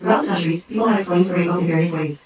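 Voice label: female speech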